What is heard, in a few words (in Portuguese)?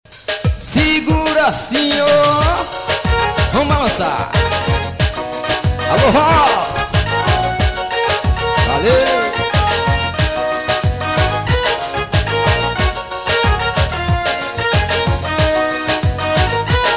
locutor amigo